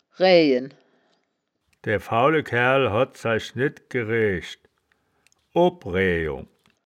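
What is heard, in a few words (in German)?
"raeaejen", wittlicher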